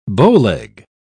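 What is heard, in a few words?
"bowleg", English, British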